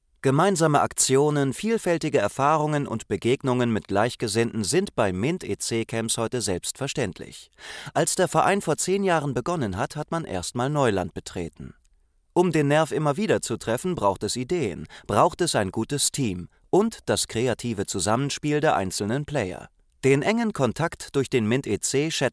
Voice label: Voice Over; Mint